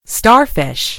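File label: "starfish", British English; gcompris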